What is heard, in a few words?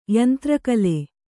"ಯಂತ್ರಕಲೆ", kannada